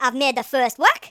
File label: first blood, Geordie, speech